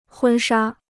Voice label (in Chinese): "婚纱", Chinese